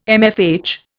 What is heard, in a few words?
"MFH", Indian